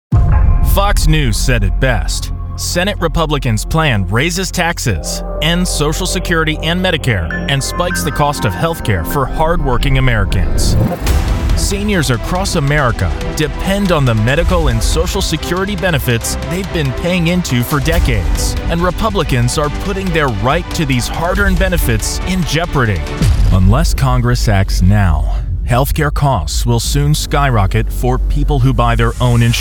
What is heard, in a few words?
Political, voiceover